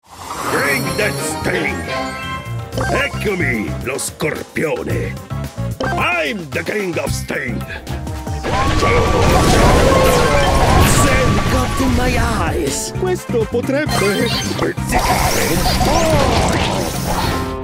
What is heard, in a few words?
Videogame e Cartoon